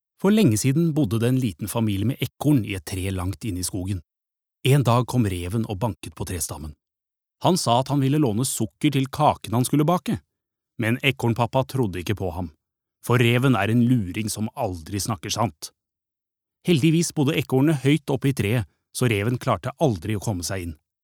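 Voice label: Norwegian childrens book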